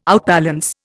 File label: "outbalance", Indian